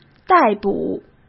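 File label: "逮捕", Mandarin Chinese; hsk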